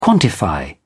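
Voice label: "quantify", English, British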